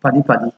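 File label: "paddy paddy", Nigerian Pidgin, male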